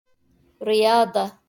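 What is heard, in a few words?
"رياضة" Arabic; sport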